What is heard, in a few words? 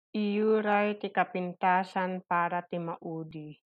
Ilocano; save the best for last - iyuray ti kapintasan para ti maudi